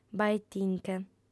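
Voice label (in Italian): "bae·ti·nche", lingua sarda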